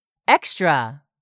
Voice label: "EXTRA", english; 3000 words